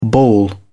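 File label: "bawl", English, British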